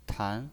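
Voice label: "tan", Mandarin Chinese pinyin, second tone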